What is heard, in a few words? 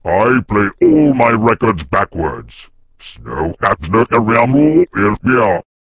DOOM Guard FUNNY; wow; DoomGuardVO